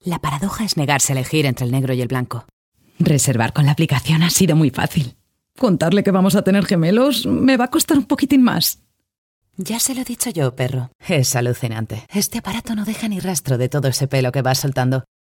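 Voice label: Spanish Europe General; GoLocalise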